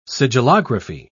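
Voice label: "sigillography", British English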